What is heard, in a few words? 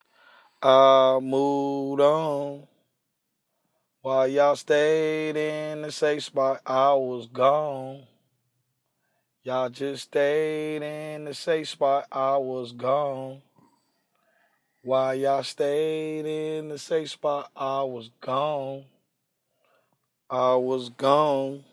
hip hop 89 D sharp, acapellas; I Was Gone